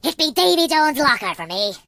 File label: Oh dear, Pirate voices; hedgewars